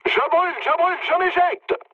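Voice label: French on fire mood low; War Thunder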